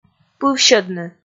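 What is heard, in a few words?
"пывсьӧдны", Komi, female; kpv audio dict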